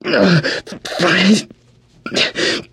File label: radio combat color; Left 4 Dead 2; churchguy